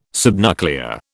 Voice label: "subnuclear", British